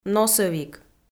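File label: "носӧвик", Komi, female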